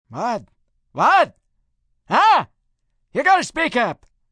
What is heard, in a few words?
What Speak Up, Response, male; Talking